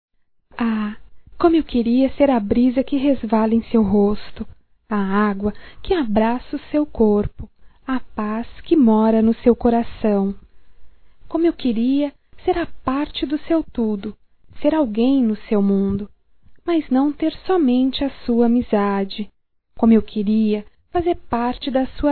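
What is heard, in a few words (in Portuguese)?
DECLARACAO, female, Telemensagens, Só Voz; Telemensagens 2017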